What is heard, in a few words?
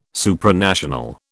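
"Supranational", British English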